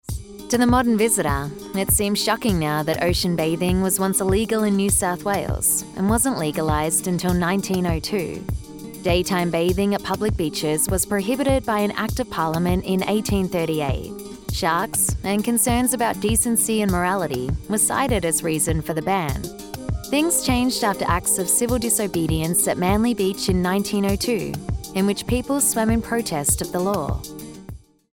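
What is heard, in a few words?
english australian interactive voice response; dubladora experiente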